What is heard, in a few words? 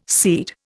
"seed", Indian